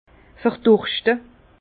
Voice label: "verdurschte", Bas Rhin; vin; mourir de soif

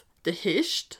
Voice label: "hecht", alsace

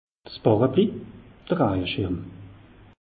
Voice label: "barabli", alsace; meteo

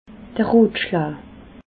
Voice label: "rotschlaj", alsace

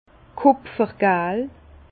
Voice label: "kupfergal", Bas Rhin; vin; or cuivré

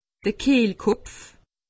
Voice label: "kehlkolpf", alsace; medecine

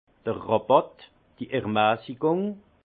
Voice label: "rabatt", alsace; vin; remise

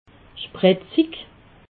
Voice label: "sprezig", alsace

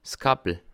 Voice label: "kappel", alsace

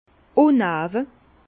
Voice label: "unawe", Alsace

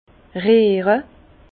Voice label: "riere", Bas Rhin; vin; biner

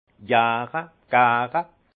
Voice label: "jare", alsace; vin; fermenter

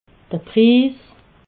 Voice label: "pris", alsace

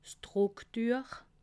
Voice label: "drucktuech", alsace

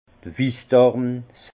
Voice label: "wissdorn", Alsace; vin; aubépine

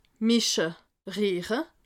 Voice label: "mische", alsace

